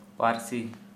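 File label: language, Santali, male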